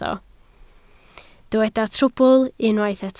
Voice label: "trwbl", Welsh

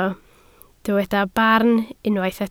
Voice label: "barn", Welsh

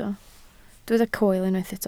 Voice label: "coel", Welsh

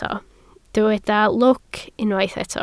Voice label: "lwc", Welsh

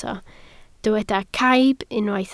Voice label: "caib", Welsh

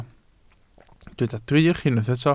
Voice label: "drych", Welsh

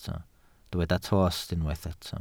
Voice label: "tost", Welsh

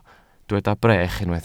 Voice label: "brech", welsh

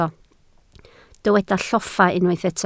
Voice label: "lloffa", Welsh

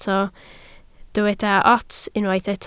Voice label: "ots", Welsh

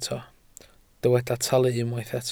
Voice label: "talu", Welsh

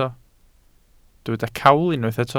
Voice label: "cawl", Welsh

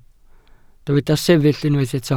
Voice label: "sefyll", welsh

